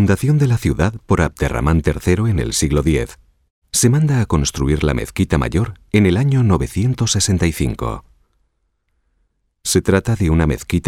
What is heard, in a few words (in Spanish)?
audioguia iglesia san juan